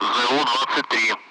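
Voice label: Speech Sound Russian Common Allied Flight; AirDefence; ZU-23; DCS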